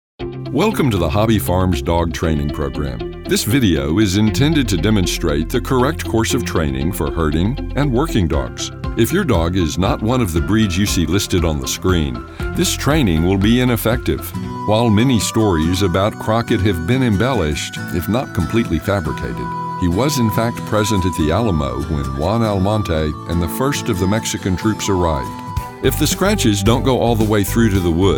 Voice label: Narration